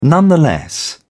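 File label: "nonetheless", British English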